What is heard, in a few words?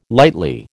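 "lightly", American English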